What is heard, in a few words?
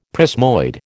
"prismoid", American